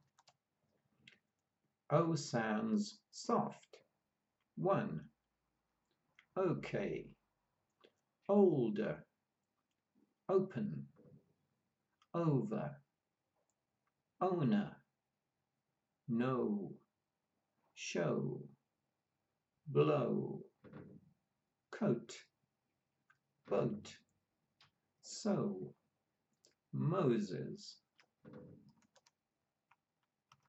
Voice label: O sounds soft, pronunciation of australian english